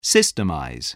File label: "systemize", English